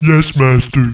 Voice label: acknowledge, ogre; Yes master.; war2; orcs